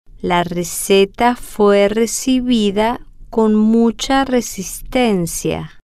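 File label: la receta fue recibida con, Spanish, female, slow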